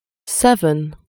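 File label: "seven", English; numeri in inglese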